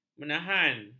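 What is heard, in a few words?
"menahan", Malay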